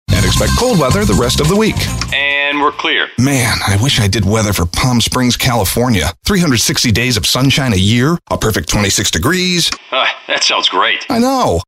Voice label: Anchorman